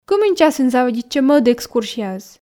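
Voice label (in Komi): кымын часын заводитчӧ мӧд экскурсияыс, Komi, female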